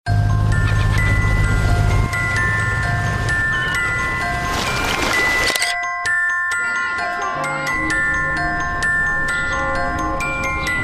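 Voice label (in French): pose, comédien voix off homme; Canon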